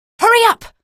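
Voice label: citizen hurry up, female; vo; npc; source engine; episodic; episode 1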